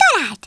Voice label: "perfect", Hungarian; Worms Armageddon

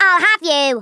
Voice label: just you wait, Scouser

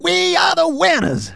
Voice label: "victory", Soul Man

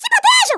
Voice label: "take cover", Portuguese; Worms Armageddon

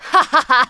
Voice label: "Fatality", Smooth Babe; Worms Armageddon

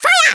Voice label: "FIRE", German; Worms Armageddon

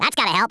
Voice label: drop, US Sports, Speech

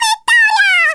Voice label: VICTORY, Italian; Worms Armageddon

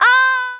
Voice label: "NOOO", Smooth Babe, Speech; Worms Armageddon

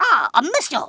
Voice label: "oops", Kamikaze; Worms Armageddon

